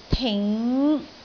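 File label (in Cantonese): "ting5", Cantonese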